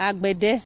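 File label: "agbede", Nigerian Pidgin, female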